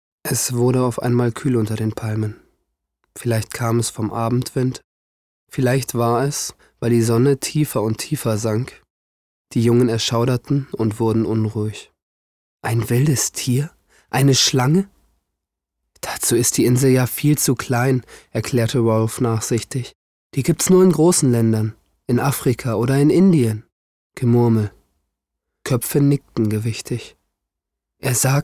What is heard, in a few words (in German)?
Hoerbuch